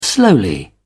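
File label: "slowly", British English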